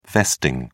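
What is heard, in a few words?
"vesting", British English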